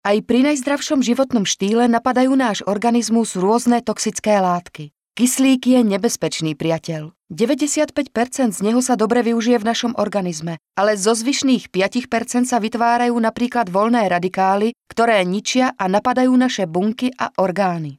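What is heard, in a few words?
Female Slovak documentary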